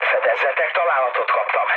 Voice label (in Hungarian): aircraft damaged mood med, hun; War Thunder